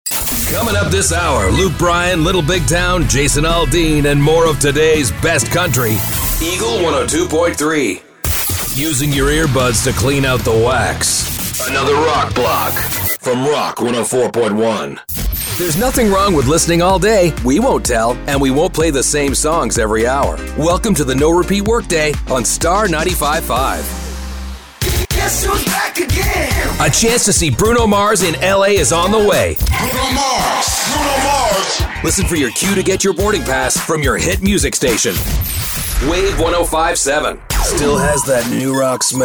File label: men radio imaging